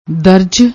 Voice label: "daraj", Punjabi

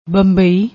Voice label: "bambai", Punjabi

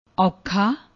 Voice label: "aoukhaa", Punjabi; ਔਖਾ

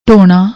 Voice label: "dhonna", Punjabi

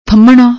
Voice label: "thanhmnna", Punjabi; ਥੰਮ੍ਹਣਾ

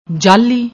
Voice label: "jaahli", Punjabi

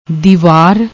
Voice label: "diwar", Punjabi; ਦੀਵਾਰ

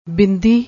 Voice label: "binddi", Punjabi

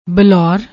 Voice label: "blour", Punjabi

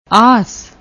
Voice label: "aas", Punjabi; ਆਸ

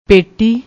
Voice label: "paitee", Punjabi

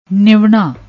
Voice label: "nivana", Punjabi

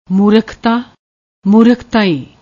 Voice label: "moorakhtaa", Punjabi

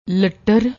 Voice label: "lattar", Punjabi; ਲੱਟਰ; لٹّر; adjective; vagabond, loafer

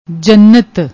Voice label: "jannat", Punjabi

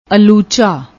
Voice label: "alucha", Punjabi

